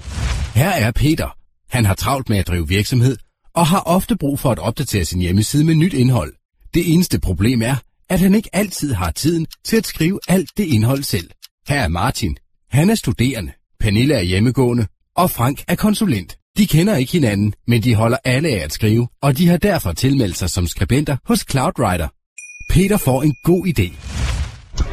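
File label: Danish, male, commercial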